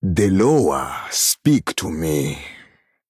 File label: Male Troll Thinking